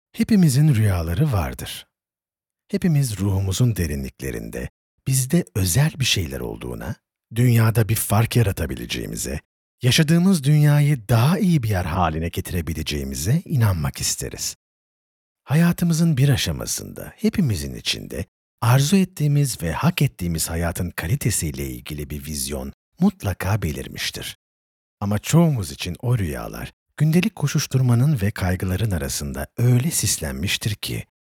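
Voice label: Turkish, male, audiobook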